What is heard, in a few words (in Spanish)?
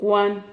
"cuan", Silabas